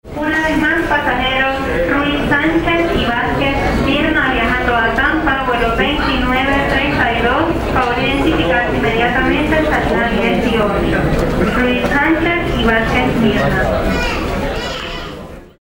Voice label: airport spanish